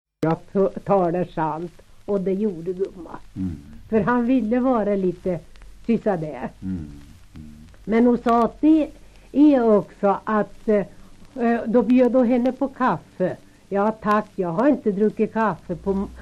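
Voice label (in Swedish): Tystberga Bälinge Södermanland, Svenska sagor, dialekter; Folkminnen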